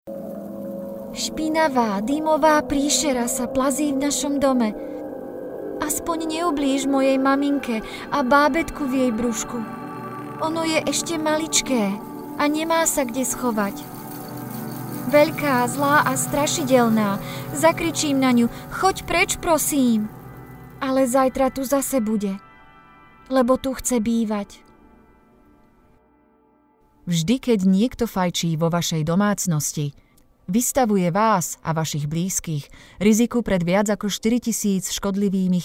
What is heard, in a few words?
SMOKEFREE WEB COMMERCIAL